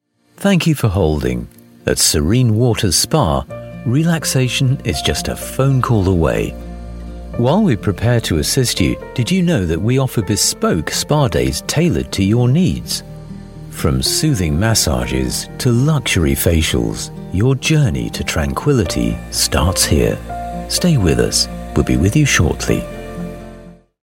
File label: on-hold